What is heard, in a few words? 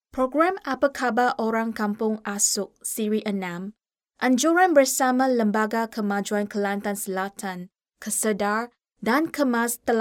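Malay, Malaysia, female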